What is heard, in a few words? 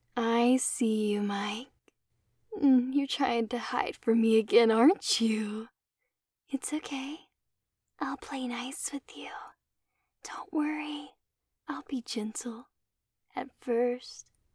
Seductive Female Horror